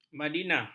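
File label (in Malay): "madinah", Malay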